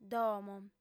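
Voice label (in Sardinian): "domo", female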